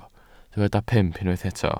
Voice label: "pump", Welsh

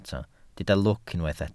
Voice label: "lwc", Welsh

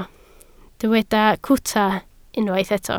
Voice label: "cwta", Welsh

